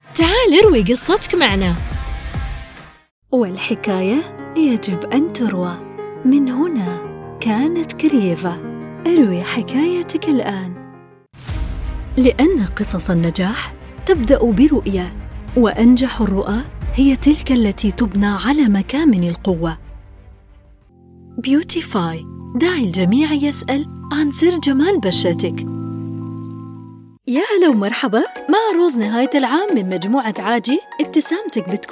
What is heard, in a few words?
voiceover